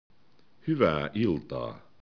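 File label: "iltaa", Finnish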